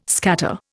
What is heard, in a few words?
"scatter", Indian